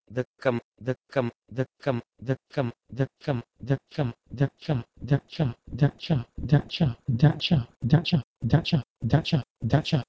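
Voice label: Proto-Indo-European dekm to Iron dash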